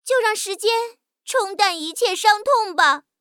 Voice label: sad

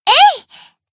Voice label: Battle Shout; Asuna; Bunny

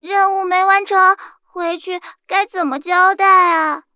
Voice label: sad

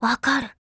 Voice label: FEELING, JP; CZ100

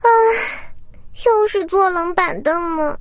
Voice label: sad

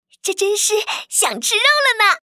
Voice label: chinese; Dpr 14; 料理次元:东坡肉

